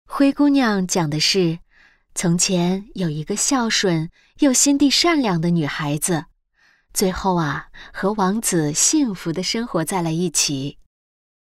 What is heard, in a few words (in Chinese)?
角色温柔关切妈妈